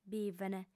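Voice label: "bivent", female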